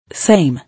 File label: "Same", English